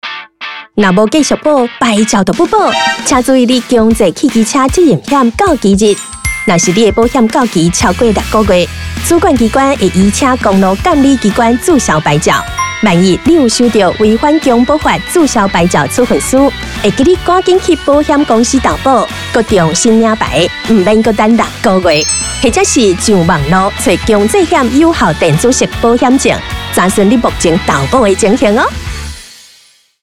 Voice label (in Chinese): 台語; 牌照篇; 11月30日起，微型電動二輪車新車須投保強制險才能上路，現行已領有合格標章行駛上路的微型電動二輪車，應該在施行後2年內投保強制險。